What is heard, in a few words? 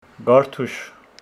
Homshetsi reading